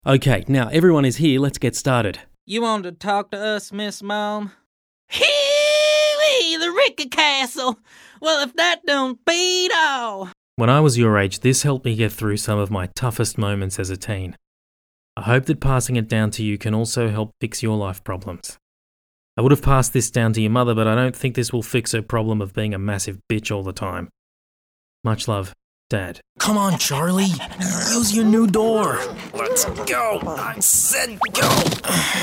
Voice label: Character; Voice Over for Animation and Cartoon Characters